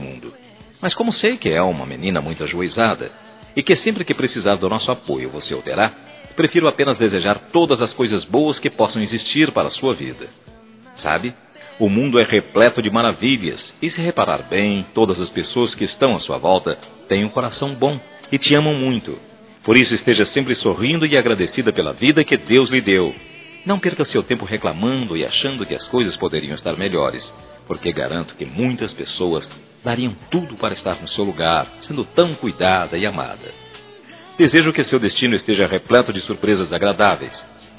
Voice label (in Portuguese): Aniversário Neta Masculino; Aniversários; Aniv Familiar